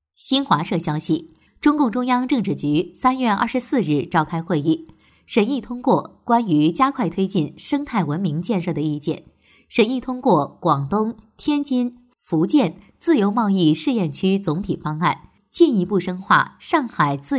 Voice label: 专题 新闻; 新华社

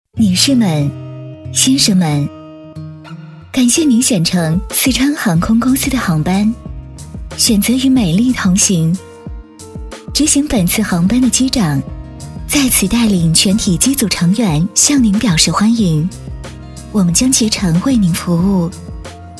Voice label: 多媒体 广播提示 机上广播; 川航机上广播

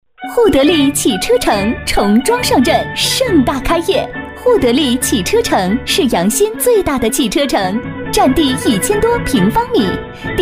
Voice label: 广告 促销 汽车城 开业广告 年轻, 女国; 互得利

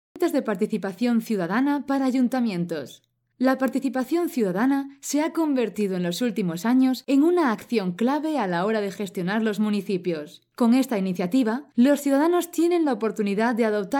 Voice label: 西班牙语女 外语 小语种

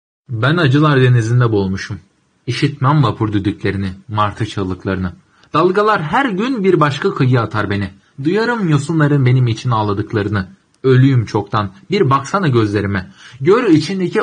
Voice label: 土耳其语男, 浑厚; 外语; 小语种

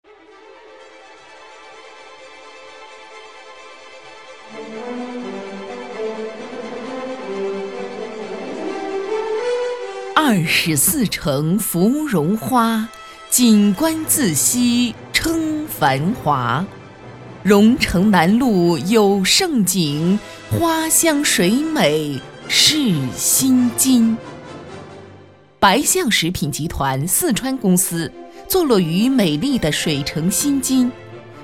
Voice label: 专题 企业 大气; 白象集团

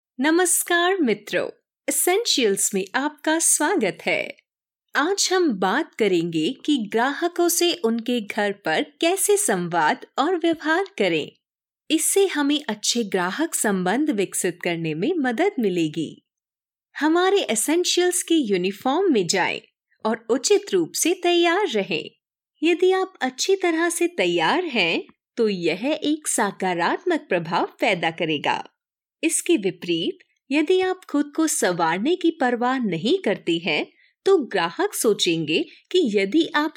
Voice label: 印地语女 外语 小语种

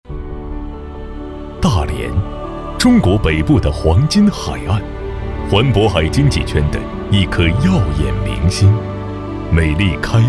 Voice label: 男国 宣传片 城市 浑厚; 大连

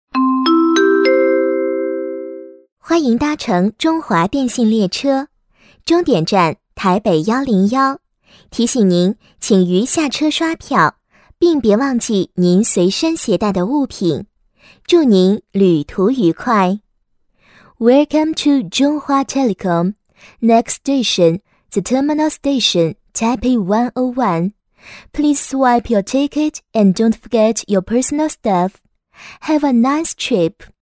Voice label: 多媒体 广播提示 地铁 报站, 女国; 台北101

